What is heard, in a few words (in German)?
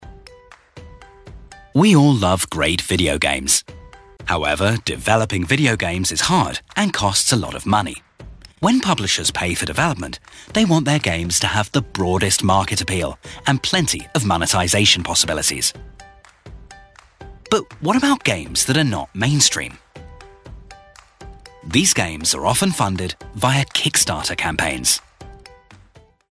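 erklär video natural voice; kickstarter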